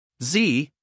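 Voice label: "z", US English, male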